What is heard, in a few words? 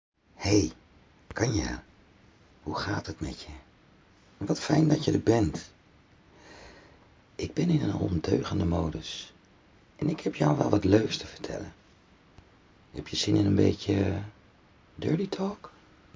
Dirty Talk